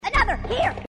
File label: another here, grunts